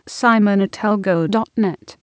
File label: email uk